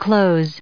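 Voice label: "close", US English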